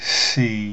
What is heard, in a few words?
"xi", pinyin sounds